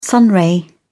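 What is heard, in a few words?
"sunray", English, uk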